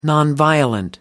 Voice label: "nonviolent", en uk